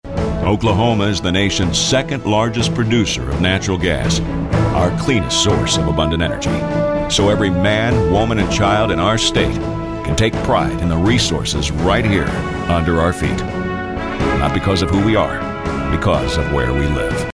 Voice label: thoughtful narrative